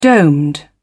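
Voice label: "domed", British English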